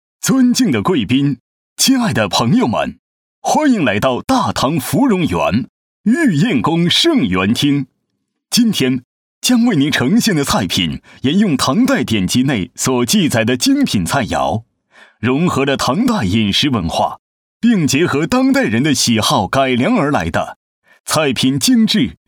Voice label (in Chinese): 男 御宴开场